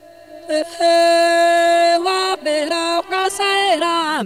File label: AFRA GIRL, ETHNIC; AMG; Global Trance Mission VOL-2